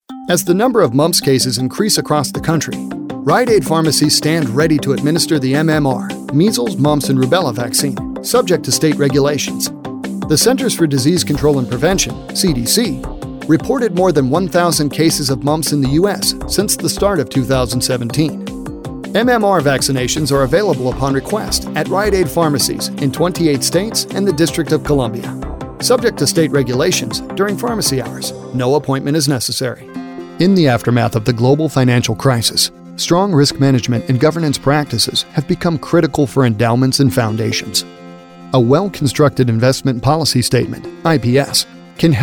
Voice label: Corporate Narration

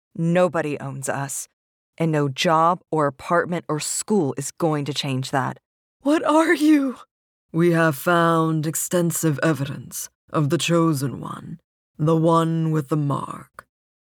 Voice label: Animation Samples

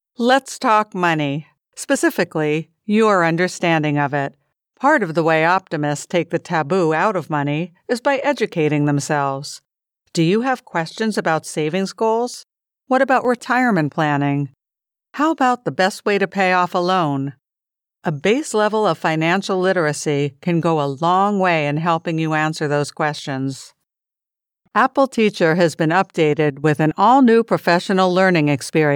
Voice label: ELEARNING; MINI DEMO

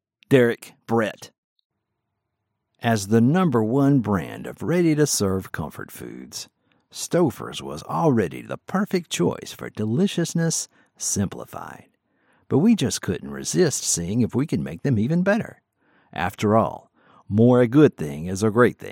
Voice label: Sales Video; RePerfected

